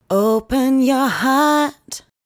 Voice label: "Open Your Heart", vocal phrases; Ultimate Vocals